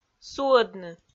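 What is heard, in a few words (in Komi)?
"суӧдны", Komi, female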